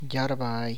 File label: "hawk", tlacochahuaya